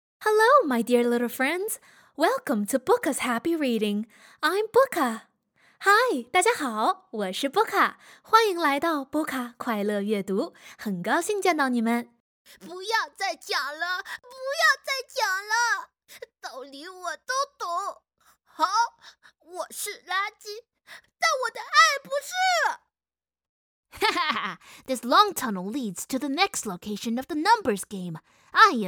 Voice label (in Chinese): 儿童中英合集